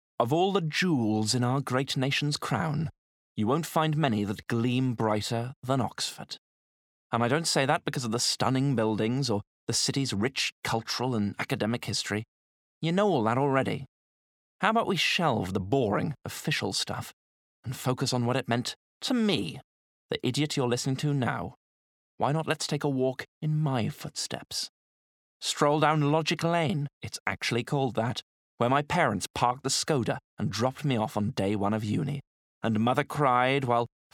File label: Narrative